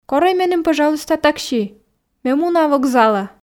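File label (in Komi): корӧй меным пӧжалуйста такси ме муна вокзалӧ, Komi, female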